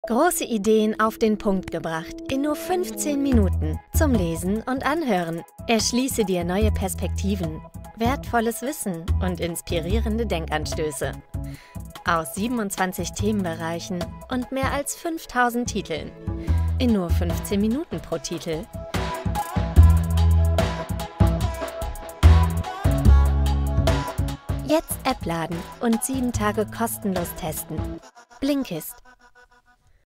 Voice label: Internet Commercial; Blinkist